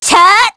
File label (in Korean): Vox Casting, kr; Aselica; kingsraid